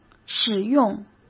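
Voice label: "使用" Mandarin Chinese; hsk; chinese-flashcards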